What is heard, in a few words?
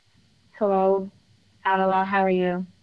Voice Acting, es, F0